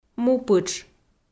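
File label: "мупытш", Komi, female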